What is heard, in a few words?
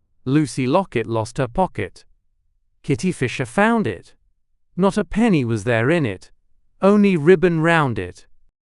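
male British, classic rhymes; Lucy Locket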